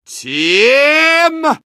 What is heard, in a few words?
jeers; medic; source engine; tf